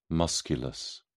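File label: "musculus", British English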